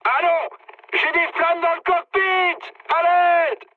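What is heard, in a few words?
French on fire mood high; War Thunder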